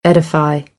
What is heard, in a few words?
"edify", American English; ə - ɪ